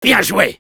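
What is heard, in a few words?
"good job", French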